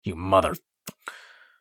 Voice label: battle cry; eft; usec